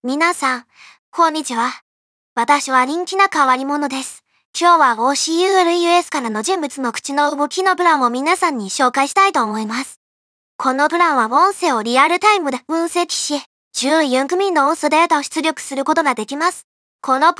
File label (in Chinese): 日语